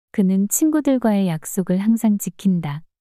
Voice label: 그는 친구들과의 약속을 항상 지킨다 문장 여자

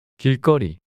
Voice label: "길거리" 남자; 단어

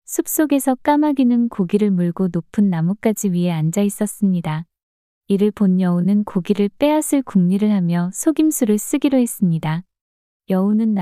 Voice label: 이야기 여자; 까마귀와 여우

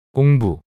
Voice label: "공부" 단어 남자

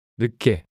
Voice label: "늦게" 단어 남자

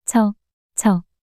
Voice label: 처처 낱소리 여자

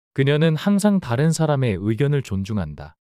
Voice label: 그녀는 항상 다른 사람의 의견을 존중한다 문장 남자

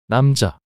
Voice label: "남자" 단어 남자

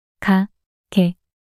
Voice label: 가개 낱소리 여자

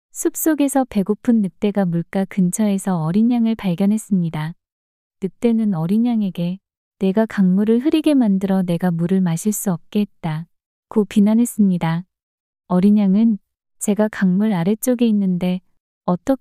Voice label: 이야기 여자; 배고픈늑대 이야기

